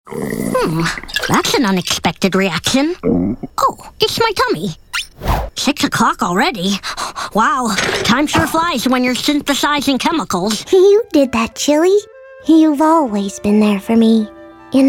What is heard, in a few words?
Animation